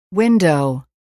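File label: "window", English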